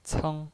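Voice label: "ceng", Mandarin Chinese, first tone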